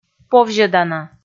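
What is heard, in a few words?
"повзьӧдана", Komi, female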